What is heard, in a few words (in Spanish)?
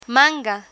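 "manga", Spanish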